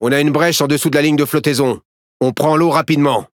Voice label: Speech Alarms Critical Flooding, FR; World Of Warships

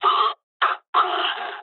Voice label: jp damaged pilot, speech jp

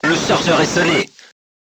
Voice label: voice message charger contused, crew tanks french

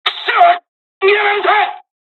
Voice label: dialogs japanese; War Thunder

